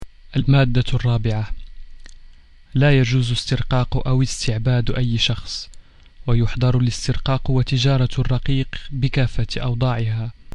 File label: Arabic